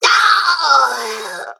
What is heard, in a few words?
Male Mech Death